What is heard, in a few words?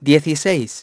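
"sixteen", English; iOS 4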